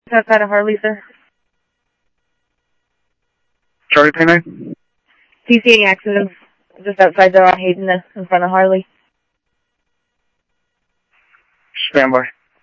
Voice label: Police, scanner; H-Scottsdale; ScottsdalePolice